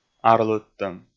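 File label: "арлыдтӧм", Komi, male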